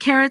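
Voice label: "Carrot", pronunciation; vegetables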